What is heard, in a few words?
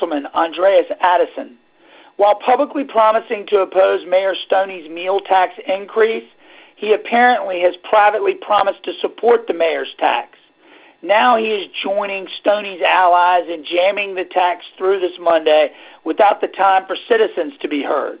Call Message